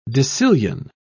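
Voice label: "decillion", English, British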